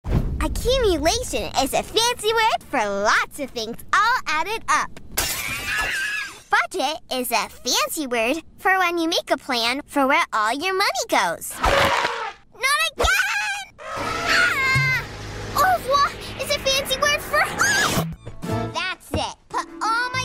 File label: kids animation